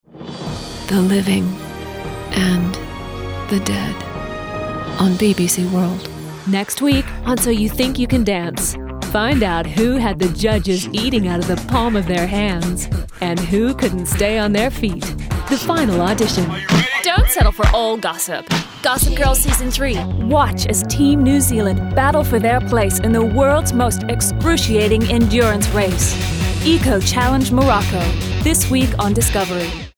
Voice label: promos